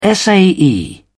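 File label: "sae", English, British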